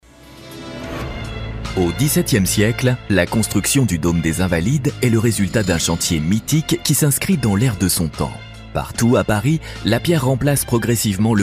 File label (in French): documentaire, comedien voix off homme, voix off documentaire; LES TOITS DE PARIS UN PATRIMOINE REVELE élégant